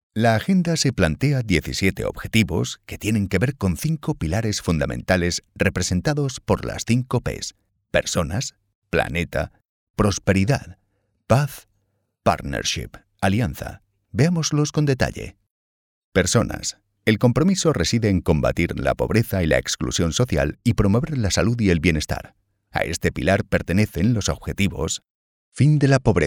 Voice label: E-Learning, deep, trustworthy, confident Male Voice; Tutorial; Agenda 2023 Eu; Agenda2030